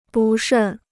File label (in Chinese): "不慎", Chinese